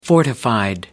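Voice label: "fortified", English, British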